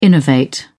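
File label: "innovate", British English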